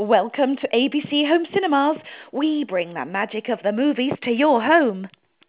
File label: Humour